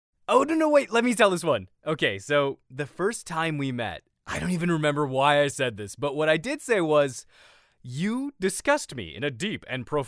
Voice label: male american voice over character, english american